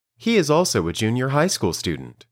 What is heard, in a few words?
Dictation